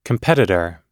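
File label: "competitor", English; oxford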